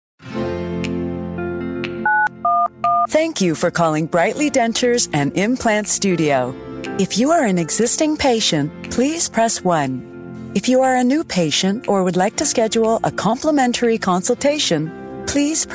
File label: ivr